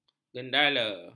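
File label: "gendala", Malay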